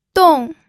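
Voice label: "dong", pinyin, fourth tone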